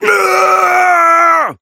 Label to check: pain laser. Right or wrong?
Right